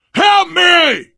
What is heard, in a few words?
help, player survivor voice biker; source engine; left 4 dead 2